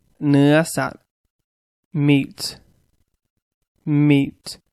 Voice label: "meat", English; noun